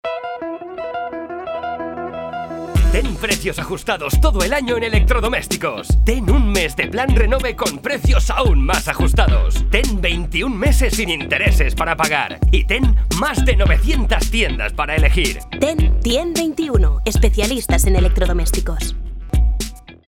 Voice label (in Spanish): cuña